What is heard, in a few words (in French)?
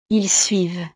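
"ils suivent", French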